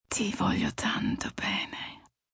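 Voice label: Love You Much Italian F, female, Italian; Endearment, foreign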